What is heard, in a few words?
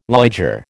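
"liger", American English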